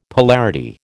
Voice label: "polarity", American

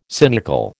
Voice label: "cynical", American

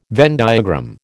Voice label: "Venn diagram", American

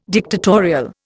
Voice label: "dictatorial", Indian

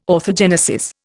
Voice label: "orthogenesis", Indian

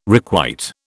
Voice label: "requite", British

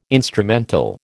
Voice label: "instrumental", American

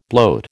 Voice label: "bloat", American

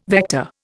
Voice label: "vector", Indian

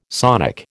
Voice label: "sonic", American

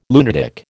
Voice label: "lunatic", American

